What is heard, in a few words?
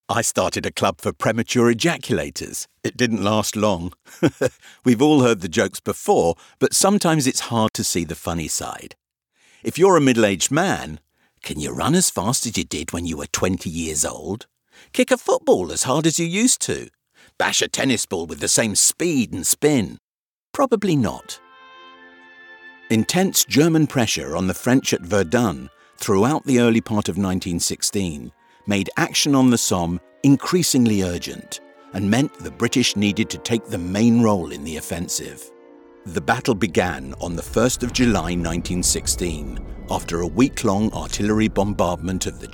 Documentary